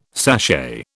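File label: "sachet", British English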